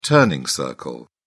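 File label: "turning circle", British English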